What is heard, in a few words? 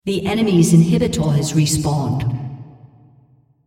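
female, On Dampener Respawn Enemy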